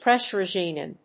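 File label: "pressurisation", English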